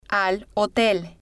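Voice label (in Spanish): al Hotel, spanish, female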